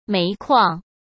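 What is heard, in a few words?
"煤矿", Mandarin Chinese; chinese-flashcards; hsk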